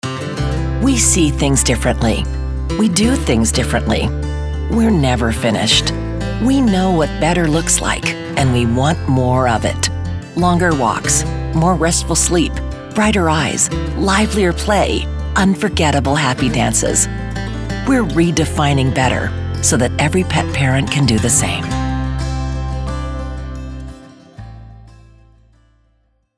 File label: Better Pet Food, Confident and sultry with a raspy timbre that is very reassuring.